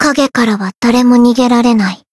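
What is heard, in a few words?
limit break, Japanese; nagi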